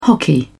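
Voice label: "hockey", British English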